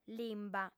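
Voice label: "limba", female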